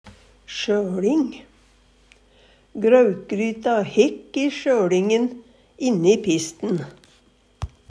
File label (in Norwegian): sjøLing, Numedalsmål; Substantiv hankjønn; Grautgryta hekk i sjøLingen inni pisten.